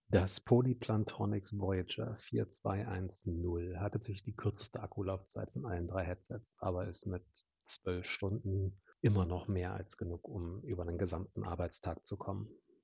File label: Poly Plantronics Voyager 4210 UC Mictest